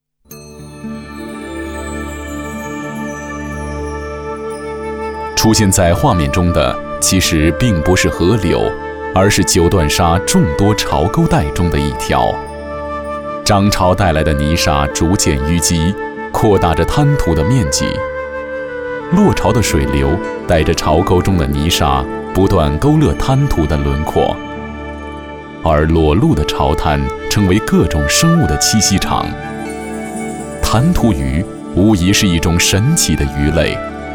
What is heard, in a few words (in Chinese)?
国语男, 记录旁白类; 九段沙